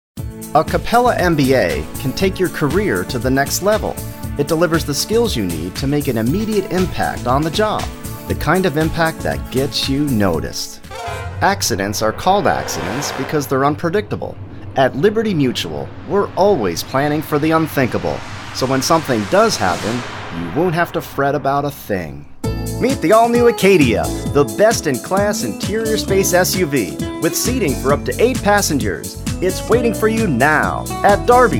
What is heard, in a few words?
commercial